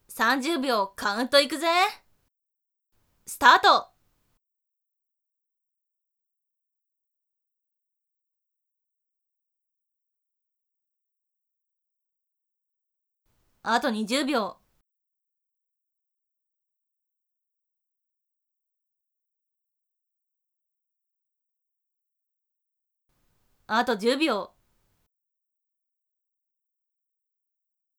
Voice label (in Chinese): 计时30秒, 女生为你进行健身计数的语音; 俺娘